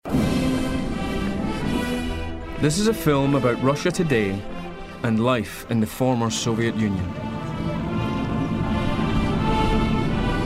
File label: documentary; 28UP